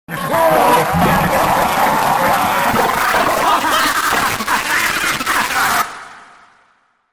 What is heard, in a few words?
rage public; missingteam